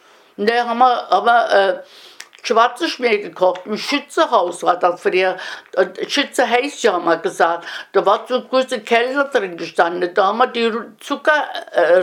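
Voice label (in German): schwarzschmeer aus zuckerrueben, O-Ton Hunsrück; Mörsdorf; Schwarzschmeer aus Zuckerrüben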